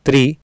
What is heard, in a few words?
"tri", Sanskrit